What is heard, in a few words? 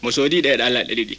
Fula